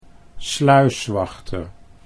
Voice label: "sluiswachter", Dutch